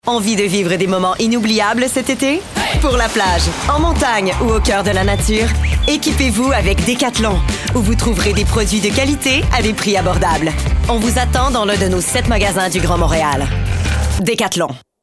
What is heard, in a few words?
voice acting; Publicity